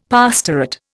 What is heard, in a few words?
"pastorate", Indian; Dictionary